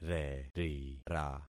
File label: "rerira", concat syllables; Affixing experiment